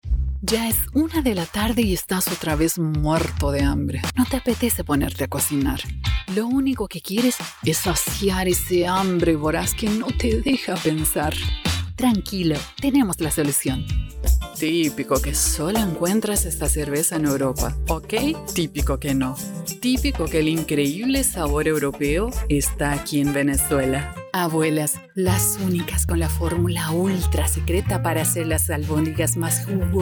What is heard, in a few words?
Acting